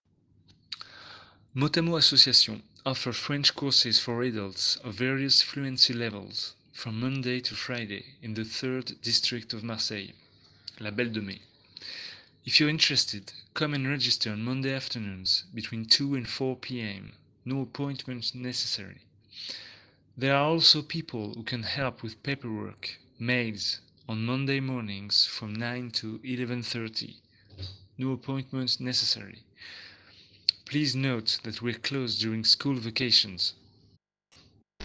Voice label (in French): anglais; MOTAMOT